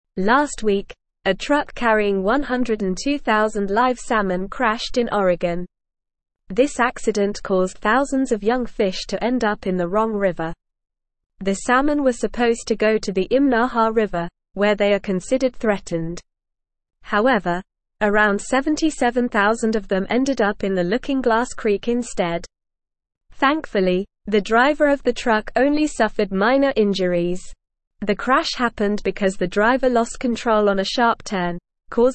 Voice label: English Newsroom Upper Intermediate NORMAL Reading; Truck crash releases thousands of salmon into